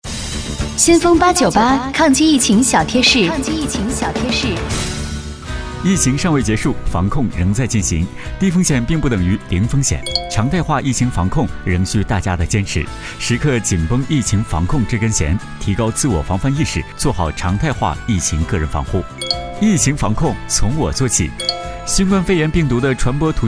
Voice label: 女 抗击疫情小贴士 疫情防控从我做起

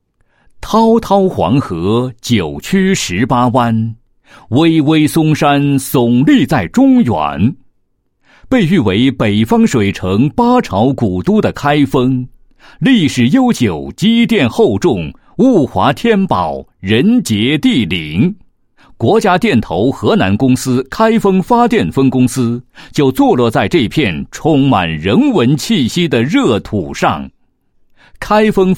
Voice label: 男 工作纪实; 发电分公司工会

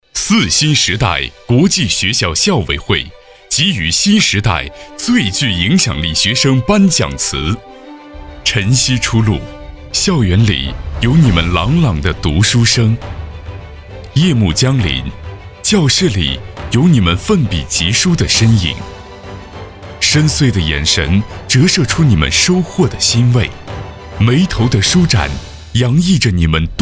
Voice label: 男 专题; 泗新时代国际学校

